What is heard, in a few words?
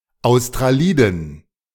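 "australiden", German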